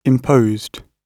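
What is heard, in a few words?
"imposed", British English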